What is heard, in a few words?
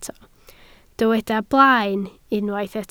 "blaen", Welsh